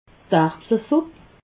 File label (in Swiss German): "erbsesupp", alsace